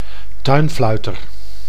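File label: "tuinfluiter", Dutch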